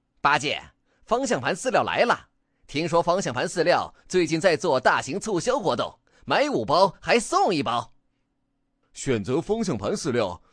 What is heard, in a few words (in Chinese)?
男6号促销 西游记风格